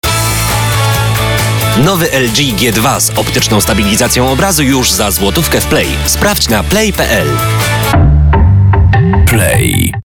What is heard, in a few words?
radio